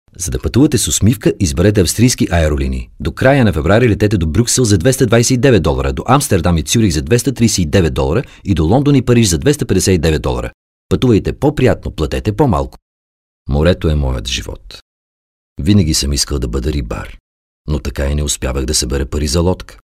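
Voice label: Bulgarian, male, corporate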